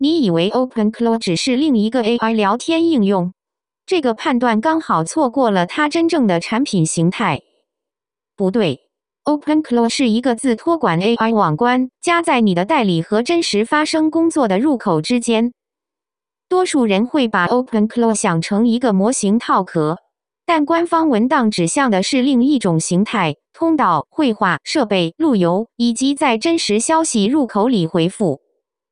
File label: explainer zh natural; openclaw